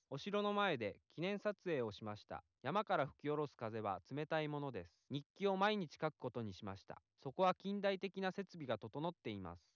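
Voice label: male, live recording, 250 ms, source; SiSEC11; underdetermined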